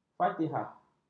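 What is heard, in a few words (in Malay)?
"fatihah", Malay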